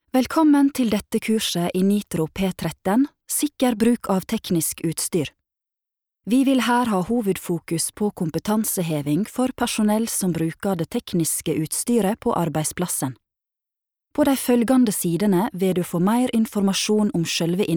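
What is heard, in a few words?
Norwegian elearning nordfjordsk nynorsk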